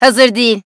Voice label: cant use; raze